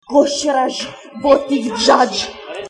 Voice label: birthday klingon